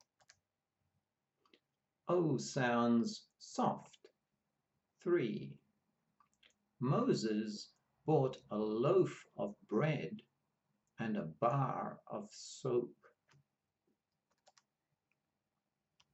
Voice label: O sounds soft, pronunciation of australian english